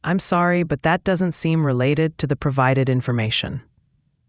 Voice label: question english